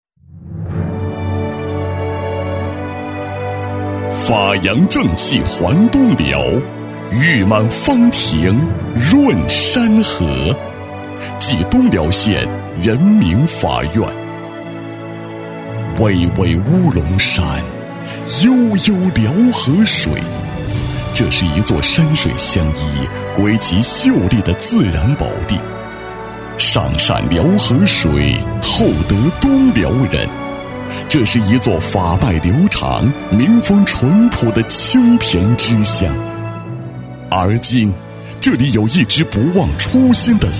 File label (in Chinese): 男 大气抒情, 厚重大气 大气浑厚; 东辽县人民法院